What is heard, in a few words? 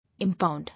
"Impound", English